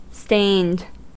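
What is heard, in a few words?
"stained", US English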